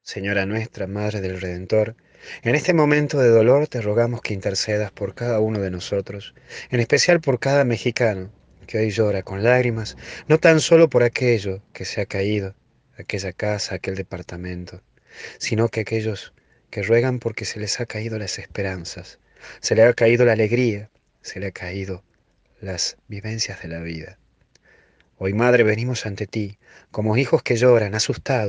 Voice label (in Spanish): oracion terremoto mexico; unidos oracion mexico